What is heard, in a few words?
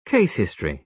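"case history", British English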